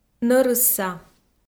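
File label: "нӧрысса", Komi, female; kpv; audio dict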